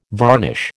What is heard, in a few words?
"varnish", American